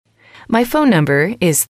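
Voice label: "my phone number is", English, female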